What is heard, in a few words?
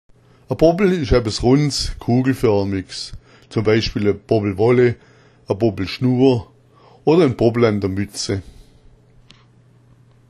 bobbel, schwaebisch